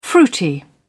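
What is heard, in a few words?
"fruity", British English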